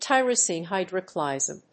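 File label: "Tyrosine hydroxylase", English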